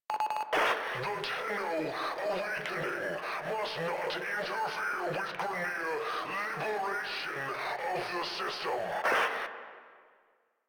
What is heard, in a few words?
Announcements, Radio Interceptions